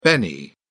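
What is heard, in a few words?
"benny", English, British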